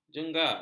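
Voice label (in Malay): "jengger", Malay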